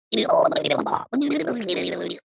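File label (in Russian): zvuk neponyatnoi tarabarshiny, sound of incomprehensible gibberish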